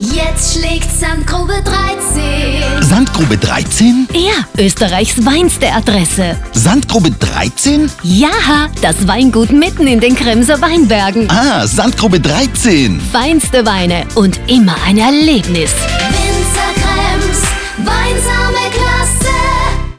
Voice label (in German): Rundfunkspot; WinzerKrems